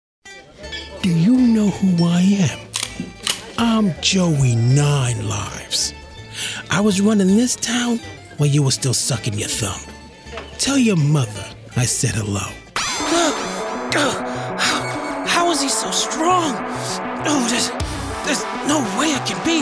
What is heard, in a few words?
Animation